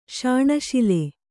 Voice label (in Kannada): "ಶಾಣಶಿಲೆ", Kannada; śaṇa śile; noun